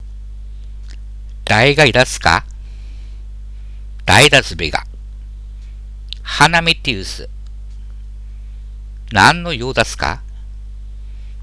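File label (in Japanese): ハナメとコウメ, 秋田弁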